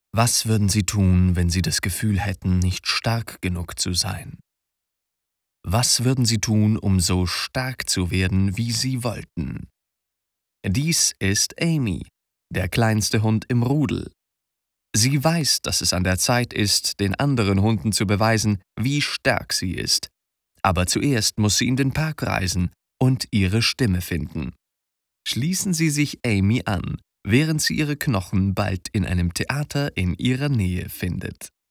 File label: german movie trailers